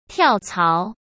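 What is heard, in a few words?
"跳槽", Mandarin Chinese; hsk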